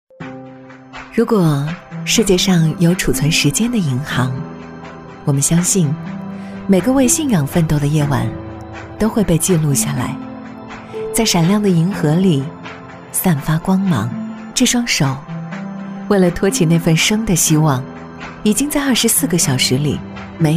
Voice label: 女 解说旁白 温情质感; 妇女节; 大气稳重; 质感磁性

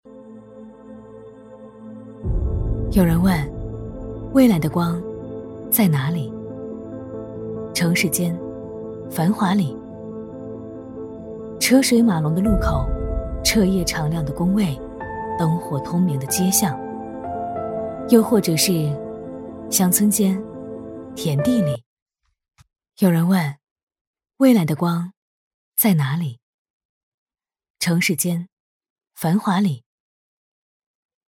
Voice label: 女-走心旁白-质感走心; 女B32-大气质感; 低沉走心

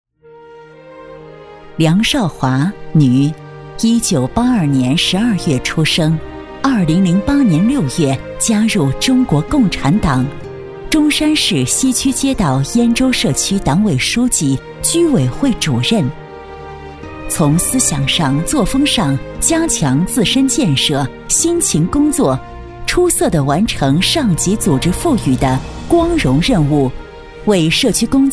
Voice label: 女-人物介绍-磁性庄重; 党委书记; 磁性质感; 成熟知性

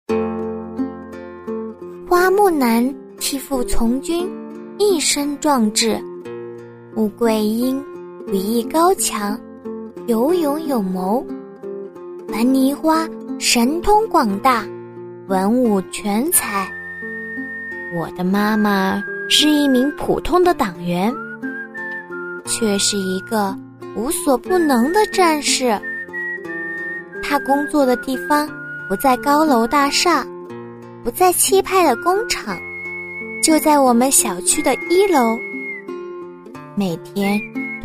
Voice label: 女 学生童声 稚气青涩; 女007-年轻甜美; 可爱

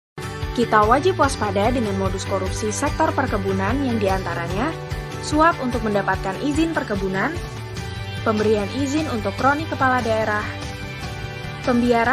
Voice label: 印尼语女声

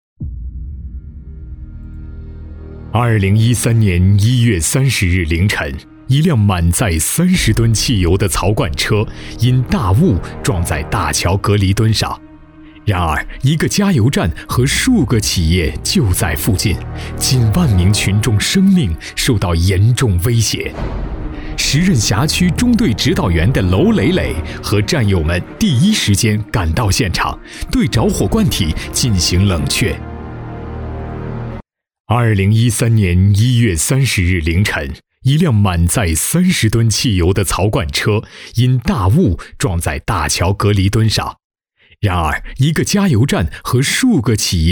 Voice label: 男 案例解说 紧张叙事

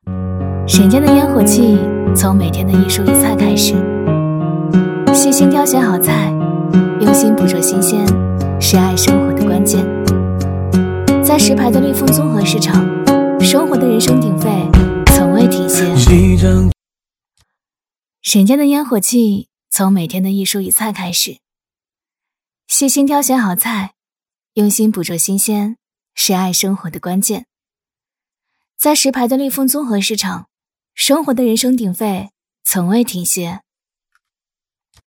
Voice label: 女, 素人, 素人风; 大气质感; 素人自然

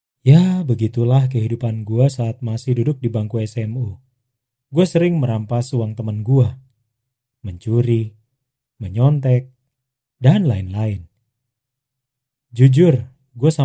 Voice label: 印尼语男声

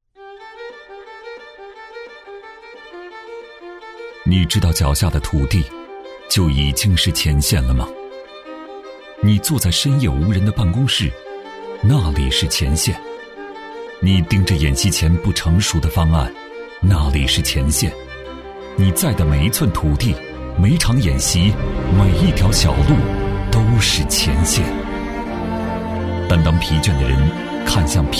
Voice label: 男-走心旁白-低沉磁性; 男178-磁性舒缓; 质感磁性

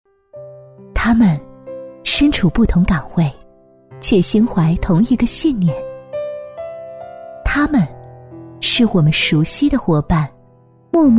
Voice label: 女 人物旁白 温暖讲述; 女21-大气知性 深情感人; 闪耀的微光

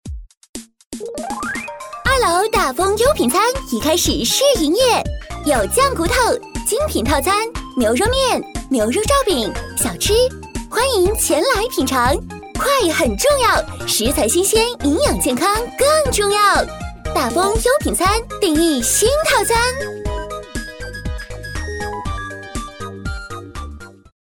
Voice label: 女 餐饮广告 甜美活力; 大丰优品餐

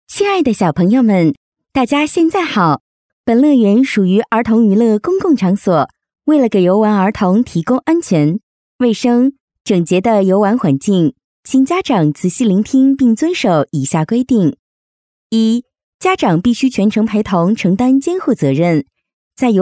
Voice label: 女 场所提示音 温馨提示 亲切甜美; 女53-中英双语; 甜美亲切

